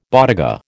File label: "bodega", American